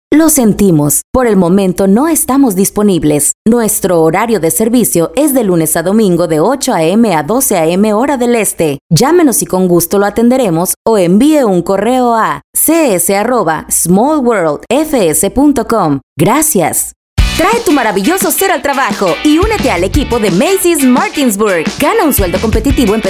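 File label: Spanish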